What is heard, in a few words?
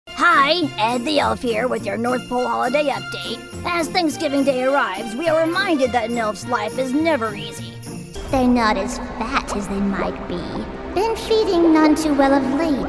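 female character, voiceover, women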